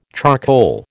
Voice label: "charcoal", American